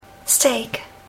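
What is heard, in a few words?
"steak", English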